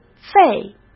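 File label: "肺", Mandarin Chinese; chinese-flashcards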